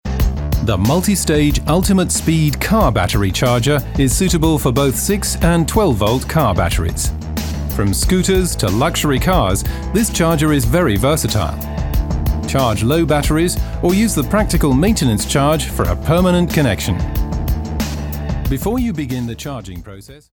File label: UK Product Film